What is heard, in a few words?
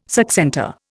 "succentor", Indian